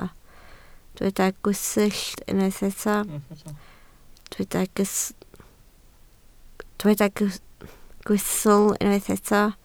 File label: "gwystl", Welsh